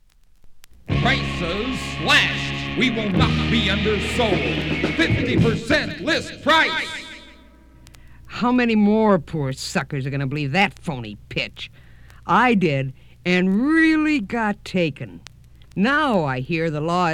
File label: Announcer